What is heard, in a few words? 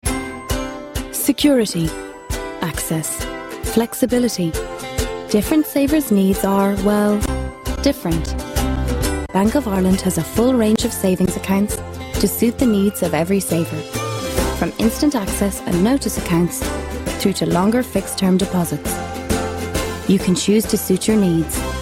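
English, Commercial, Irish; GoLocalise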